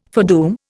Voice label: "foredoom", Indian